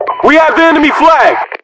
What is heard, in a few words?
enemy flag, voiceovers, US; english